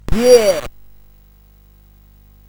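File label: Bouncy Balls speech, MOS 8580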